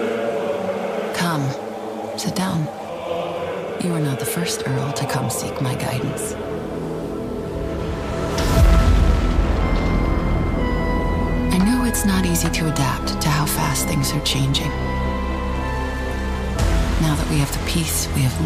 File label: Video Game Trailer, Female Voice Over; American Female Voice Actor